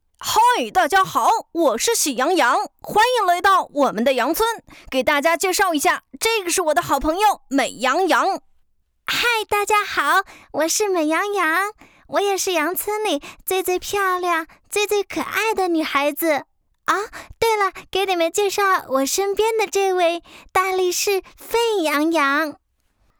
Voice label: 女5 多角色; 喜洋洋多角色

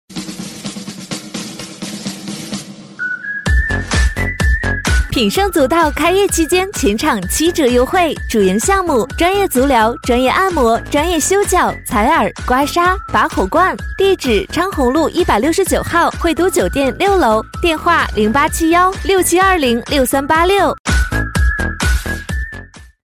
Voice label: 女 促销激情 足疗店+音乐

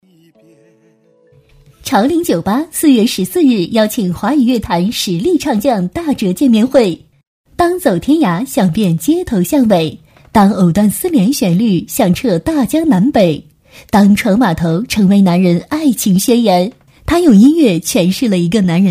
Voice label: 女 潮领酒吧电促

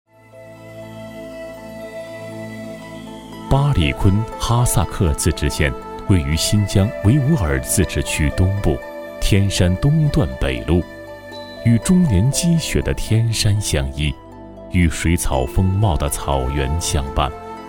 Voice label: 男 磁性讲述; 巴里坤哈萨克

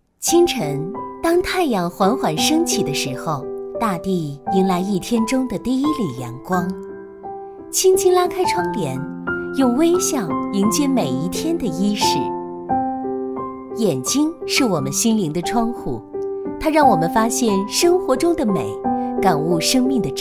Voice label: 女 知性感情; 护眼专题

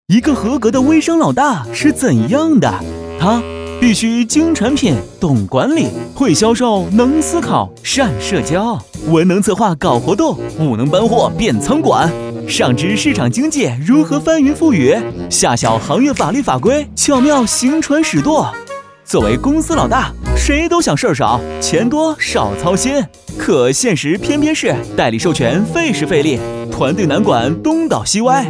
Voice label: 男 微商; 飞碟说-微商

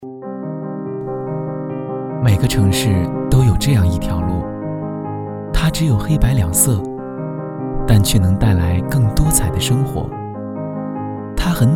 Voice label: 男 温情; 斑马线; 飞碟说

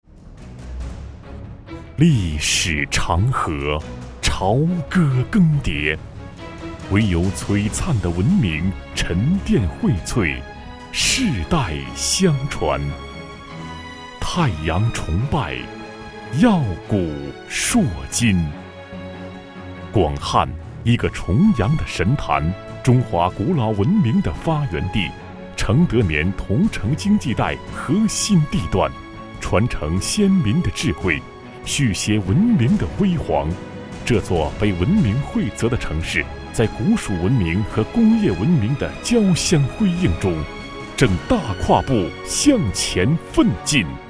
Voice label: 男（浑厚力度）

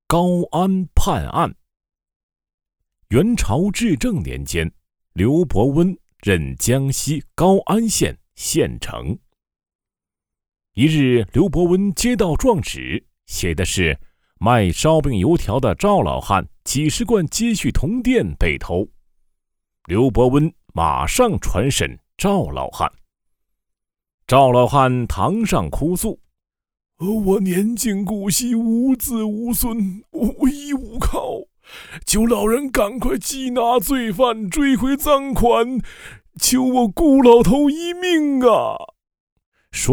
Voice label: 男 高安判案

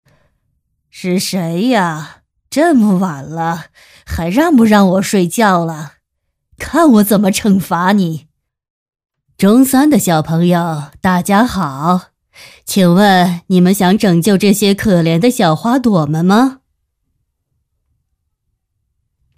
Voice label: 女 女巫

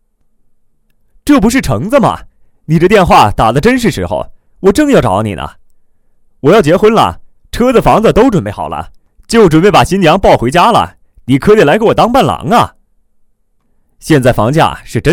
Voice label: 男 青年角色他乡遇故知亲切自然

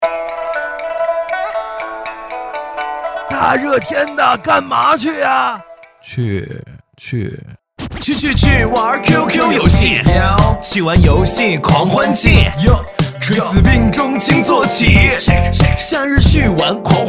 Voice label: 男; QQ游戏趣玩狂欢季

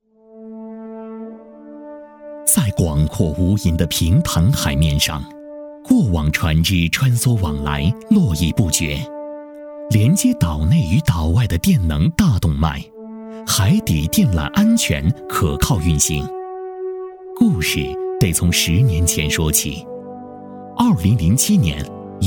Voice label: 男 记事叙述--海底电力

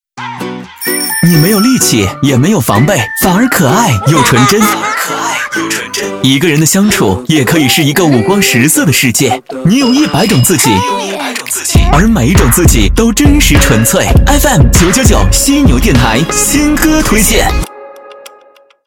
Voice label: 男12 片花-品质时尚; 飞碟说

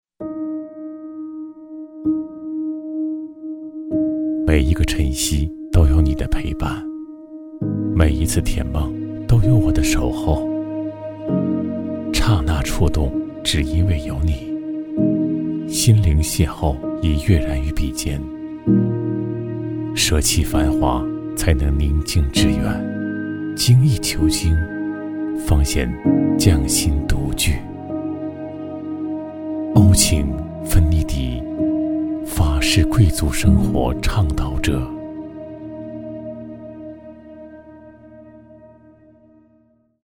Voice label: 男 低沉感情; 芬伲迪-家居

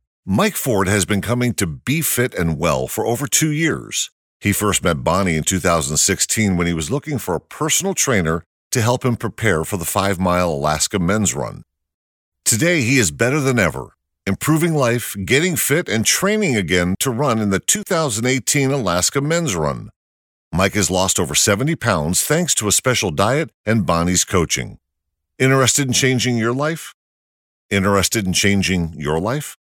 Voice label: 外籍男 健身宣传

